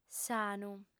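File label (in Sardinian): "sanu", female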